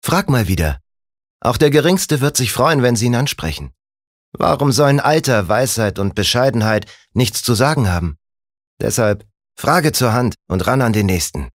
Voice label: Werbung Cool

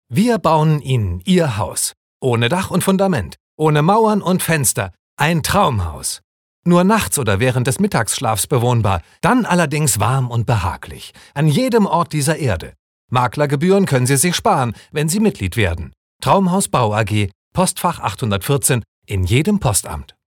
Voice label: Werbung Energisch

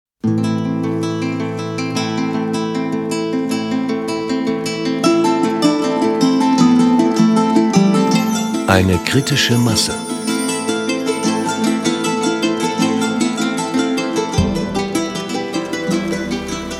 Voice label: Sanft

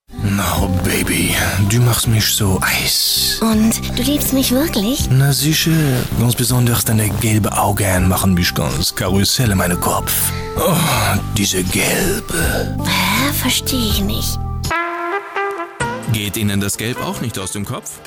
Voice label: Akzent Franzoesisch

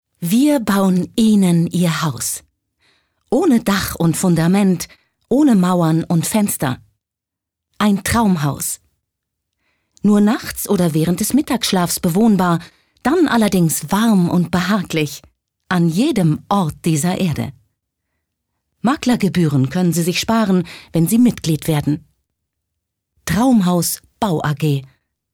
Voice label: Werbung, Freundlich

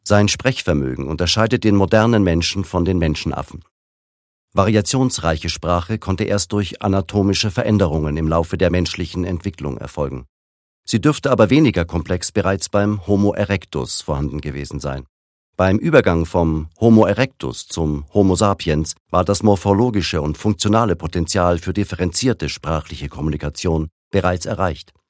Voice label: Sprache Neutral

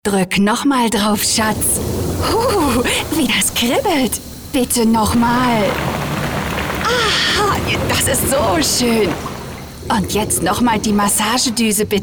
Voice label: Werbung Dynamisch

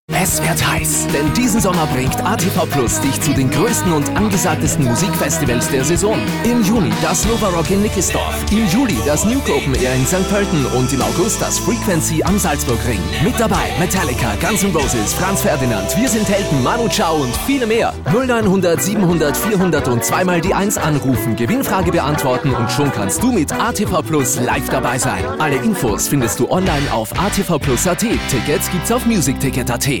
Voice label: AT Werbung Dynamisch

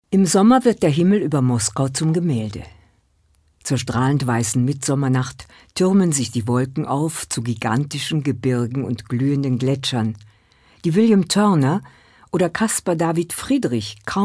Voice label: Kommentar Neutral

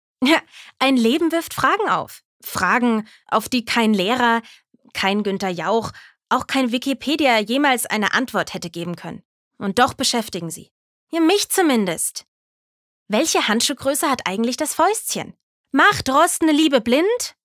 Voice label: Schauspiel Dynamisch

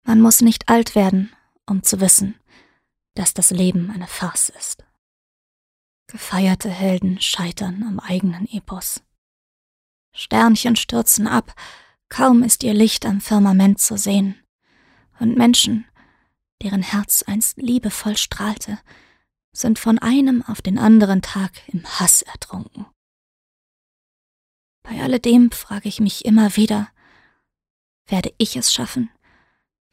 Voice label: Weltschmerz Sanft

